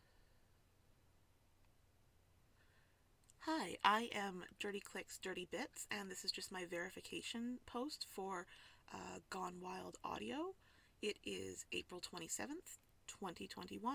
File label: F, Verification